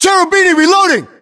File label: US inform reloading, battlechatter, english